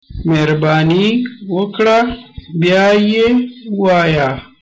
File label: say again, pashto